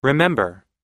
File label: "remember" us; 思い出す、(…を)思い出す